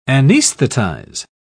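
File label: "anaesthetise", British English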